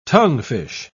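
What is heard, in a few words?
"tonguefish", British English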